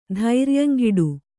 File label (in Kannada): "ಧೈರ್ಯಂಗೆಡು", kannada